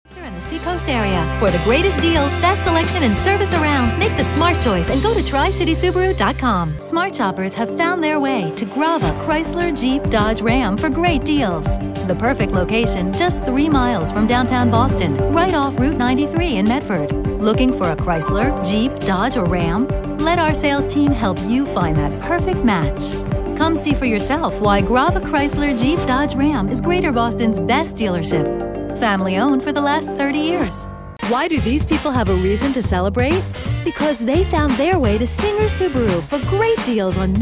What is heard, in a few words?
US, automotive